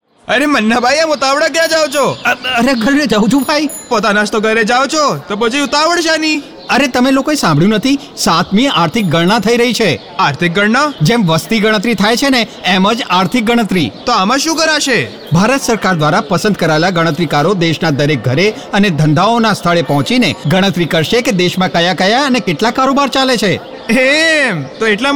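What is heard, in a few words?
Gujarati Radio Spot; economic census; seventh economic census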